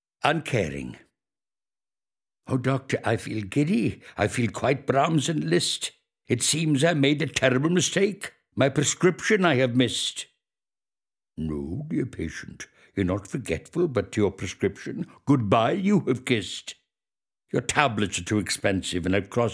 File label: poem read; Victor Spinetti